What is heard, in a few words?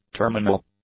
"terminal", English; adjectives